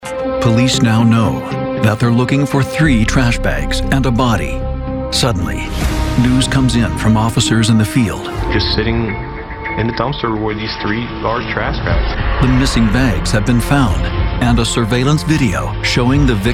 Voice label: Police Crime Mystery TV Narration voice over

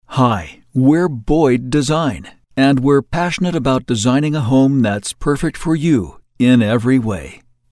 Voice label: Corporate Narration voice over; Boyd Design

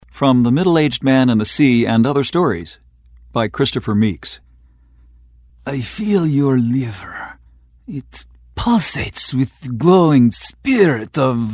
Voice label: Humorous Exchange Audiobook voice over

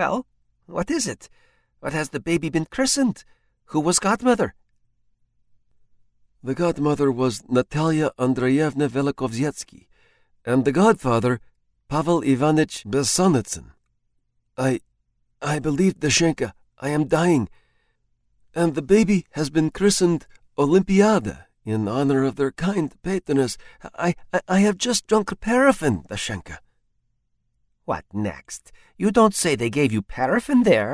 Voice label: Russian speaking Audiobook voice over; Chekhov

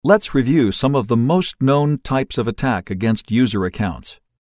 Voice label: Review E Learning voice over

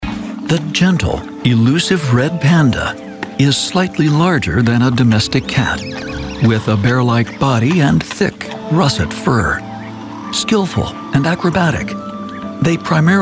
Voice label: Nature Red Panda TV Narration voice over

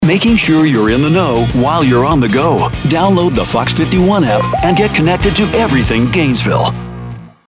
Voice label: News Promo Voice; Fox51; App Affiliate

